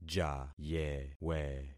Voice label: "jayewe", concat syllables; Affixing experiment